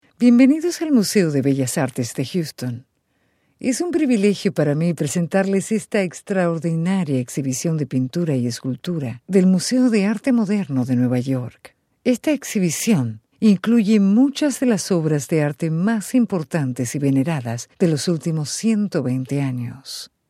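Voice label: Spanish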